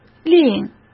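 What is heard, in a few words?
"另" Mandarin Chinese; hsk; chinese-flashcards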